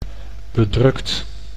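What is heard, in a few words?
"bedrukt", Dutch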